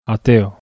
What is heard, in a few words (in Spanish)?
hiato eo ateo, bizkaia masculino